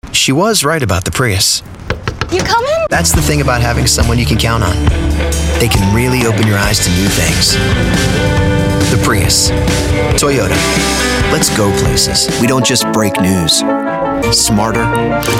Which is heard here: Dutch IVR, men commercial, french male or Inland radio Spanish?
men commercial